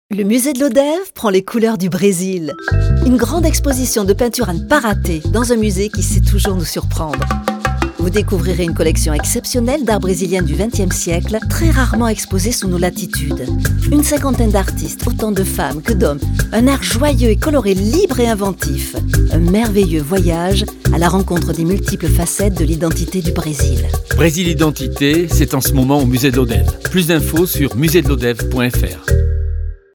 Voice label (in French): spot radio; musee de lodeve